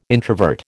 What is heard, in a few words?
"introvert", American